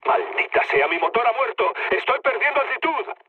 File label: damaged engine, mood med, speech; War Thunder